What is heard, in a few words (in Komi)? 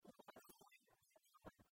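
лоас зэра да тӧла, Komi, female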